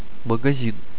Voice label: "store", russian